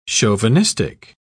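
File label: "chauvinistic", British English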